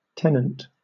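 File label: "tenant", British English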